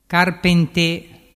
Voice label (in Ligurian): "carpente", dialettu